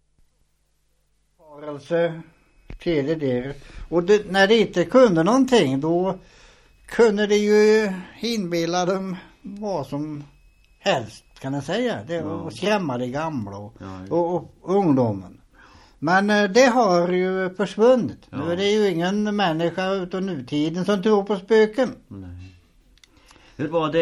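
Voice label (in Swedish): Stora Åby Östergötland, dialekter; Folkminnen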